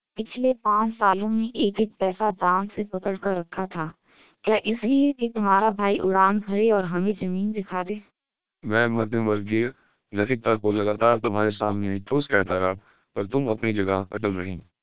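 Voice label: SPR 1200 short, Hindi speech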